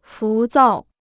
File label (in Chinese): "浮躁", Chinese